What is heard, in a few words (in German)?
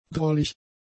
"drollig", German; adjectives